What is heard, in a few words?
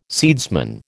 "seedsman", American